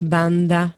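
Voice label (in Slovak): "banda", Slovak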